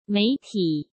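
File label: "媒体", Mandarin Chinese; hsk; chinese-flashcards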